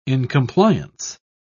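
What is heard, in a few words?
"incompliance", British English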